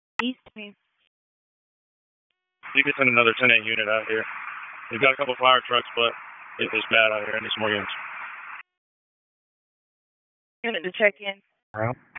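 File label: Sheriff's Office Dispatch 1, p25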